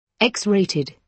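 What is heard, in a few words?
"x rated", British English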